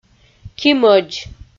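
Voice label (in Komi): "кимӧдз", Komi, female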